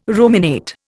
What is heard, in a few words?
"ruminate", Indian